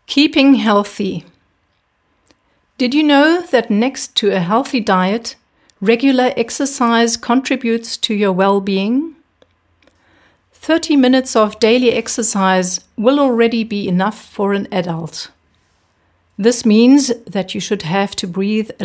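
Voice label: English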